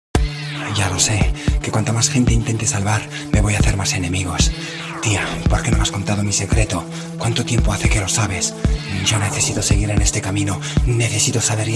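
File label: Spanish Europe, General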